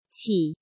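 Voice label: "Qǐ", Chinese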